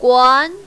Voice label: "guan2", mandarin, female